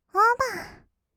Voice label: "オーバー", kawaii voice; ASMR; 1200 kawaii voice!!; 音ゲー

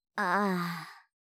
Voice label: "あぁ…", 相づち・反応系【ネガティブ】; ASMR

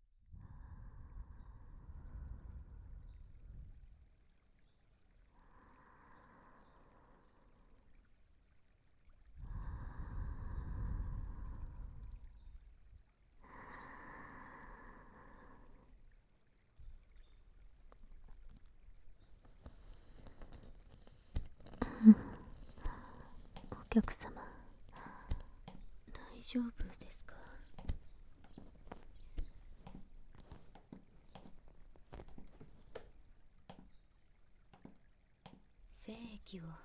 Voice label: 背面マッサージ, ASMR

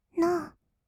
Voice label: "ノー", kawaii voice; ASMR; 色々なワンショット

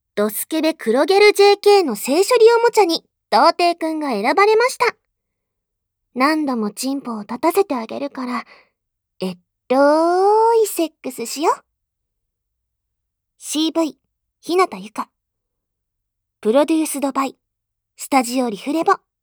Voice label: タイトルコール, ASMR

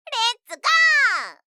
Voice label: レッツゴー！, 相づち・反応系【ポジティブ】, ASMR

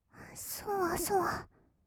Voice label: "そわそわ", kawaii voice; 1200 kawaii voice!!; 色々なワンショット

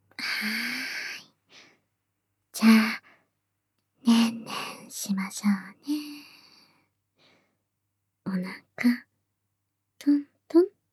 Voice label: 癒やしボイスで囁き寝かしつけ（SE無し）

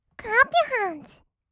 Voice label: "クラップユアハンズ", kawaii voice; ASMR; 1200 kawaii voice!!; 音ゲー

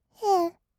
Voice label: へう, kawaii voice; ASMR; 色々なワンショット

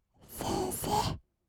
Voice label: 先生, kawaii voice; ASMR; 二人称; 1200 kawaii voice!!

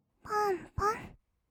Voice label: "ぽんぽん", kawaii voice; ASMR; 色々なワンショット

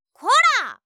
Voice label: コラ！; ASMR; 相づち・反応系【ネガティブ】

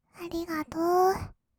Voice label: ありがとう, kawaii voice; ASMR; 色々なワンショット

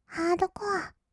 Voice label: ハードコア, kawaii voice; ASMR; 音楽ジャンル

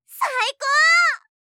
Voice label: 「最高！」, 相づち・反応系【ポジティブ】

